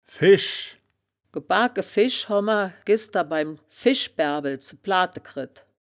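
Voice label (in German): "fesch", wittlicher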